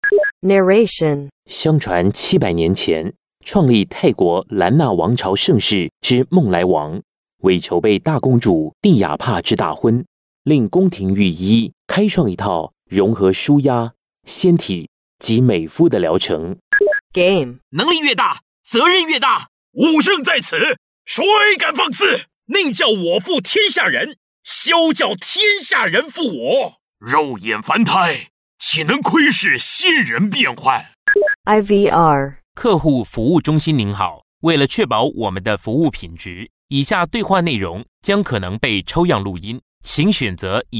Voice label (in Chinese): 國語 男